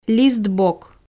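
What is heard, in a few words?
"лист бок", Komi, female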